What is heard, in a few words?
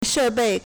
"she4bei4", Chinese